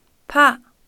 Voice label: pig, Kham

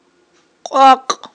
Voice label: "къвакъ", Aghul

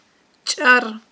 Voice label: "чIар", Aghul

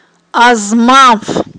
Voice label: "азманф", Aghul

